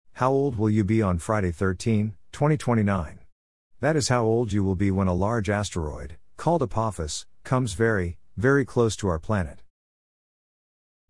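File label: American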